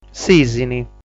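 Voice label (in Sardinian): "Sìsini", Sardinian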